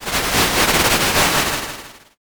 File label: whisper